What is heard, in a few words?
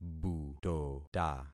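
"butota", concatenated syllables; Affixing experiment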